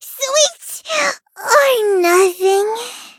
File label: hit, en; cookierun